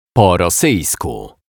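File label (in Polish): "po rosyjsku", Polish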